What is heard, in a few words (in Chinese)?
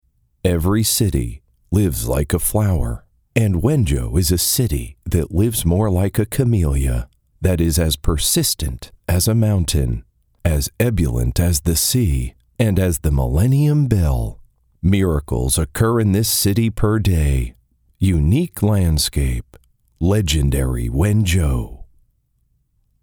外籍英男 宣传片 抒情 磁性; 温州地区